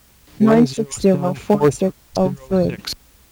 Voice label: Female